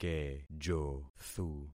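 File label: "kejothu", concatenated syllables; Affixing experiment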